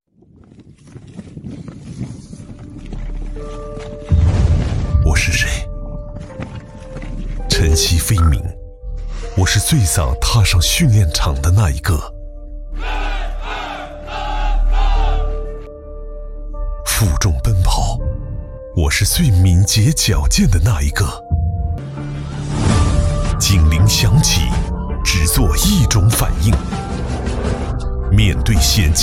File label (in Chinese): 走心磁性